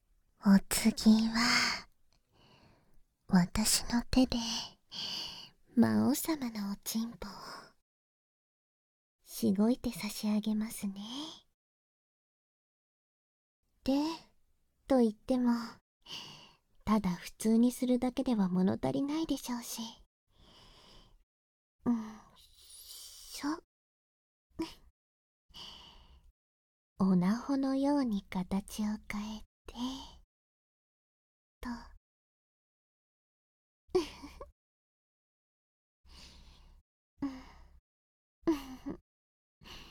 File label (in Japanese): 囁きスライム手コキ, バイノーラルマイク 効果音なし